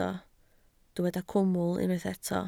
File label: "cwmwl", Welsh